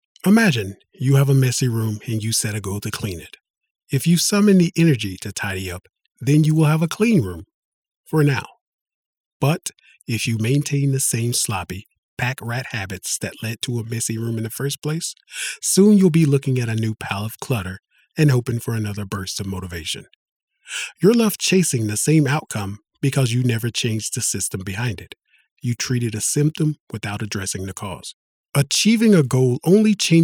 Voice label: Nonfiction Narration; storyteller